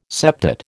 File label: "septet", American